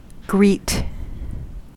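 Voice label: "greet", American English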